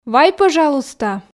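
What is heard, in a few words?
"вай пӧжалуйста", Komi, female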